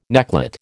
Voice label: "necklet", American

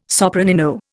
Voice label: "sopranino", Indian

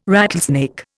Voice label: "rattlesnake", Indian

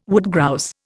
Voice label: "woodgrouse", Indian

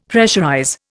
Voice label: "pressurize", Indian; Dictionary

